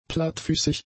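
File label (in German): "plattfüßig", German; adjectives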